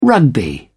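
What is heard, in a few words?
"rugby", English, British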